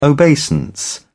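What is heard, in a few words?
"obeisance", British English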